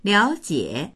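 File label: "liao3jie3", Chinese; 了解; 理解する、調べる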